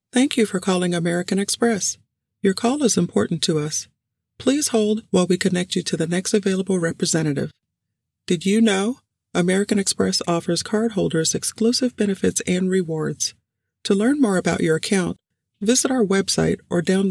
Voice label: Telephone System